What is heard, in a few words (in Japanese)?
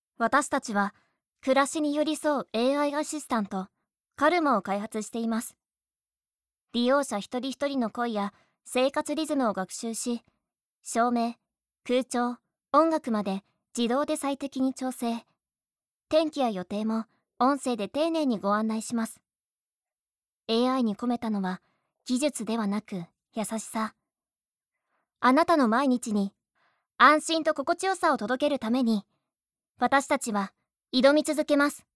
Narration Kigyou; ナレーション①企業紹介